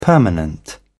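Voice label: "permanent", British English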